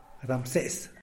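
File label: "ramsees" type of grasshopper, Zapotec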